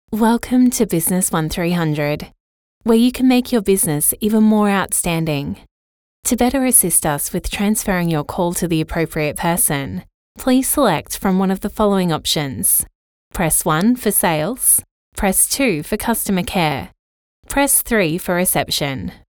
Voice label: Soft